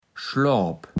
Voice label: "Schloap", platt

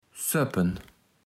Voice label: "ssöppen", Platt

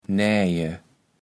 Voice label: "naeje", kaevels platt

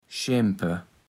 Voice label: "schempe", Platt

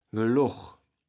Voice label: "Geloch", Platt

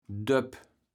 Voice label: "Döp", kaevels platt

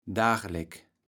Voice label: "dagelek", kaevels platt

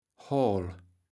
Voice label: "Hoal", kaevels platt

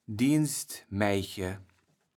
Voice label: "Dienstmäijche", kaevels platt

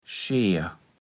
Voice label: "Scheer", platt

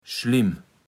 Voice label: "Schlimm", platt

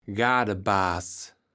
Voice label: "Gardebaas", Platt

